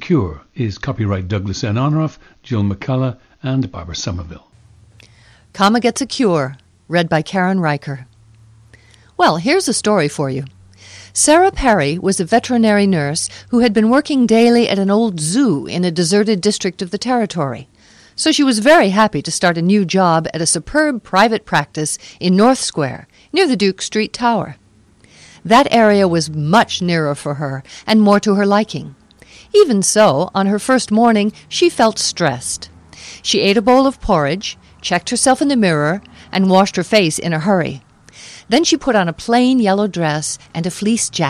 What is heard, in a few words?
General American